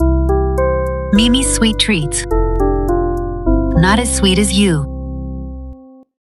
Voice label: mix vo tight